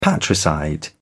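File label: "patricide", British English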